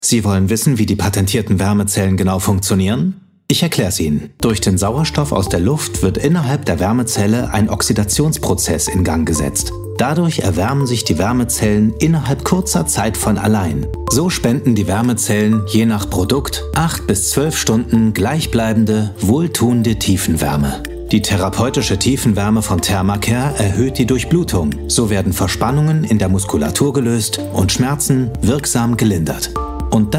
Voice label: Erklärfilm, Commercial (Werbung), Presentation, German; "ThermaCare"

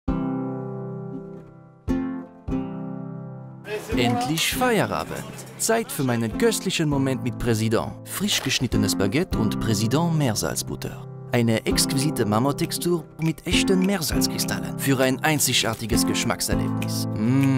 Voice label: Werbung; Président Meersalzbutter; TrueView

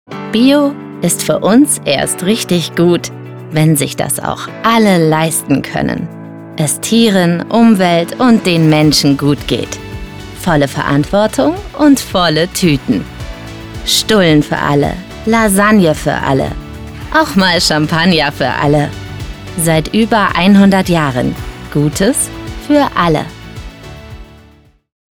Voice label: Werbung Supermarkt; LOFT VOICES

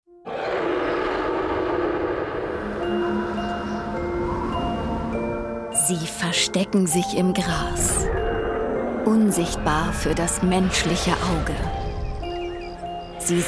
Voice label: kinotrailer

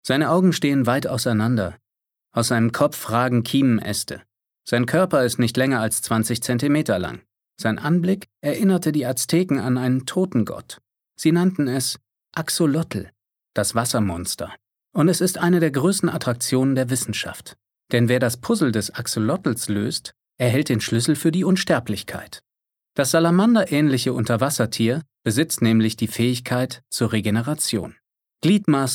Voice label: Dokumentarischer Text

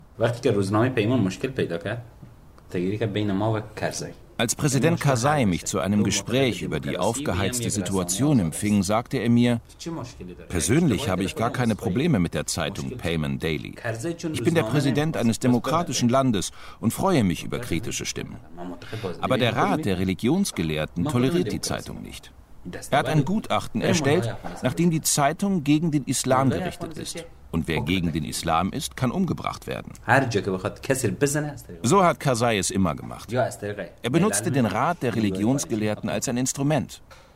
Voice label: Voiceover; DLF; Afghanistan

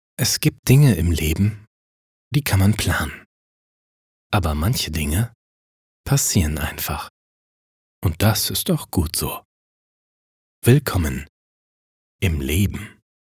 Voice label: Willkommen Emotional

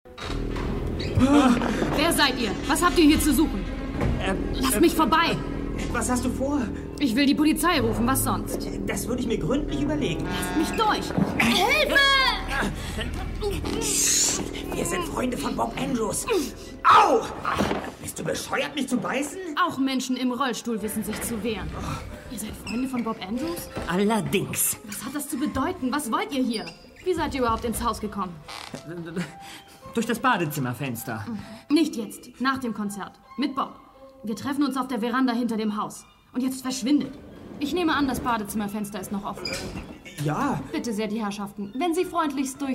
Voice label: hoerspiel

